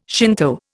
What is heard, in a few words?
"Shinto", Indian